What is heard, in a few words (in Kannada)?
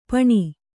"ಪೆಣ್ಣು", kannada